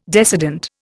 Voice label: "decedent", Indian